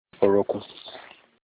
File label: knee, Olukumi